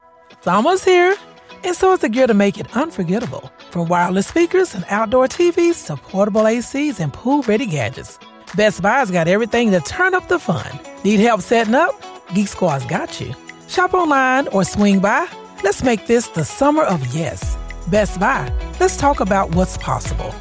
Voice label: summer retail ad; best buy